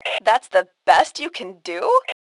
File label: Taunt Obnoxious, Female; T2 Female 01